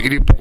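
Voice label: "irepoko", Minamiyamagata dialect Japanese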